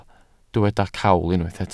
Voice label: "cawl", Welsh

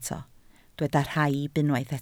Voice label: "rhaib", Welsh

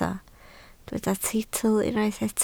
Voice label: "teitl", Welsh

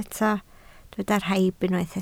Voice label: "rhaib", Welsh

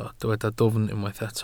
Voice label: "dwfn", Welsh

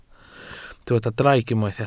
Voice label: "draig", Welsh

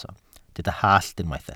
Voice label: "hallt", Welsh

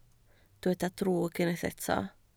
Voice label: "drwg", Welsh

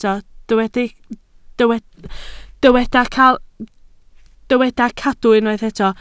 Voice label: "cadw", Welsh

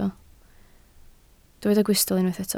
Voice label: "gwystl", Welsh

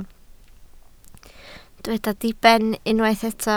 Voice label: "diben", Welsh

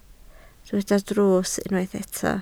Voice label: "drws", Welsh

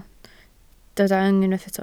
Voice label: "yng", Welsh

